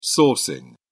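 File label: "sourcing", British English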